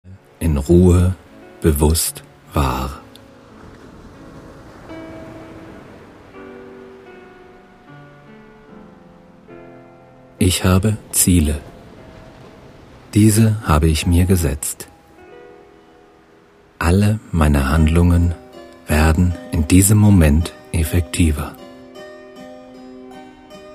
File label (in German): maennl stimme, meditation; power meditation manager managerin; langversion